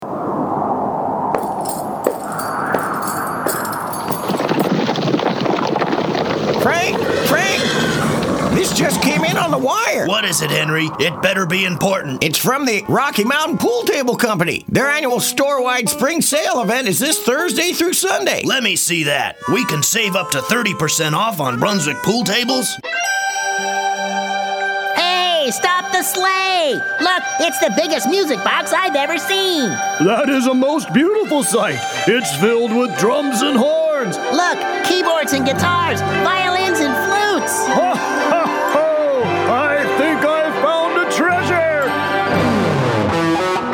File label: Radio Commercials